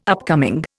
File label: "upcoming", Indian